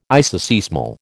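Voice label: "isoseismal", American English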